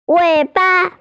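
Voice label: "tail", Mandarin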